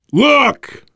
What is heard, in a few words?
look, voice, biker; Left 4 Dead 2; left4dead2 dlc3; survivor